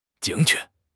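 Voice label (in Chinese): "精确", Chinese; HSK; HSK6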